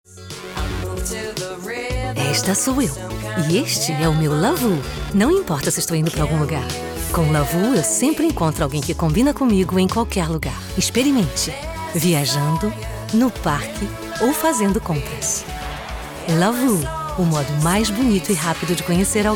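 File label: Brazilian commercial, kvinne; portugisisk-brasil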